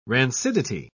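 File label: "rancidity", British English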